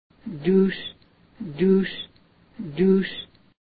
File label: cat, Haida language